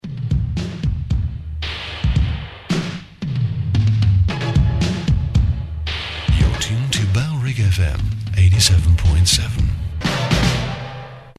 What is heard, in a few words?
male, smear; you're tuned to bfm 87.7; bailrigg fm